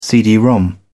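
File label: "cd-rom", British English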